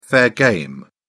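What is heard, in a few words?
"fair game", British English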